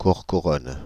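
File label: "Courcouronnes", French, Paris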